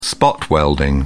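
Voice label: "spot welding", British English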